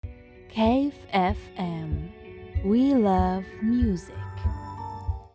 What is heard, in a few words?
german radio imaging